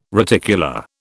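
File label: "reticula", British English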